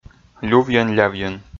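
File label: "лювйӧн-лявйӧн" Komi, male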